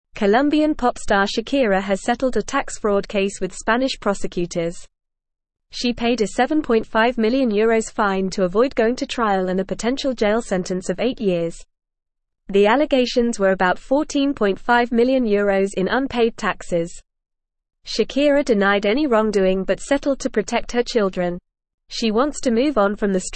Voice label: English Upper Intermediate FAST Reading

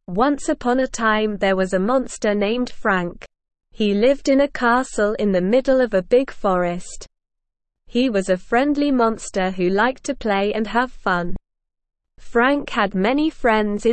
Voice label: ESL Short Stories for Kids SLOW reading; Frank The Friendly Monster

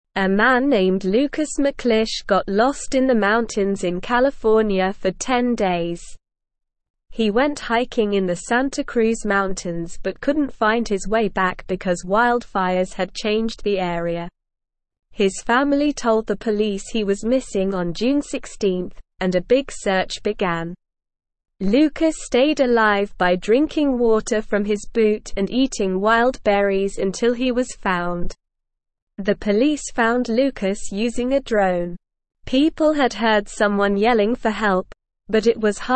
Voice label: English Lower Intermediate SLOW Reading; Lost Hiker Survives in Mountains with Help

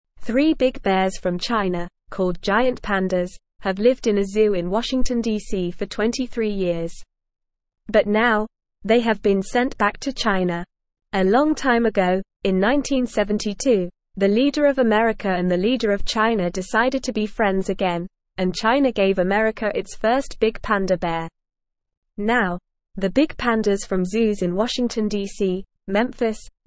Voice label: English Lower Intermediate NORMAL Reading; Pandas Going Back to China from the Zoo; English Newsroom

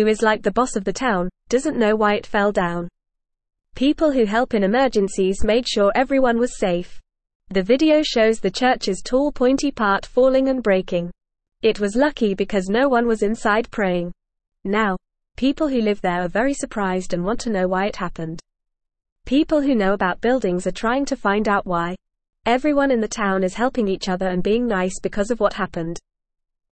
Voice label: English Newsroom Beginner FAST Reading; Big Church in New London Falls Down No One Hurt

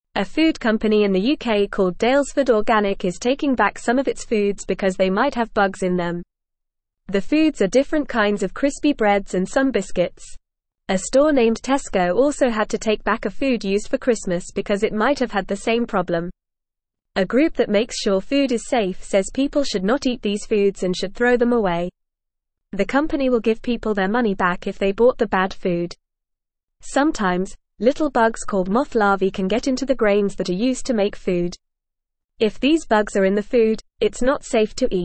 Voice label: Lower Intermediate FAST Reading, English; English Newsroom

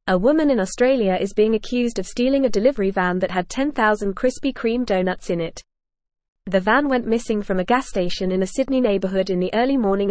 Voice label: English Upper Intermediate FAST Reading; English Newsroom; Woman Charged with Stealing Krispy Kreme Delivery V

